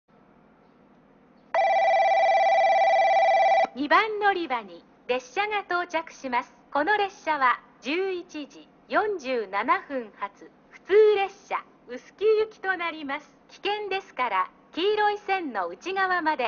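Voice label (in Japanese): 2番のりば接近放送, 中津駅; 普通ワンマン 臼杵行き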